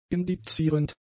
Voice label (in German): "indizierend", German; adjectives